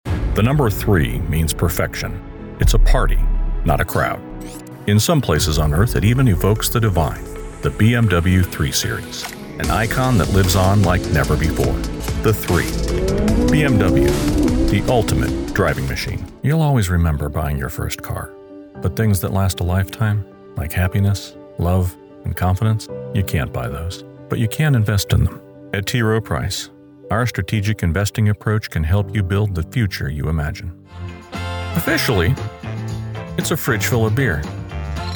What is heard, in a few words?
Commercial